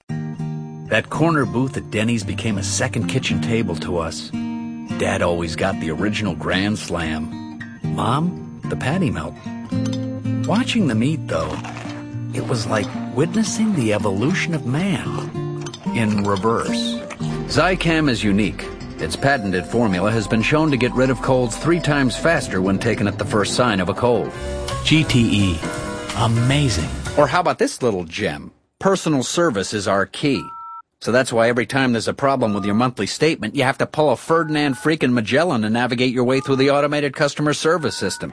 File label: male, mid30s mid40s warm